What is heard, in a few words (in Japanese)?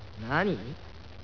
"nani", japanese